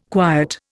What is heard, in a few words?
"quiet", Indian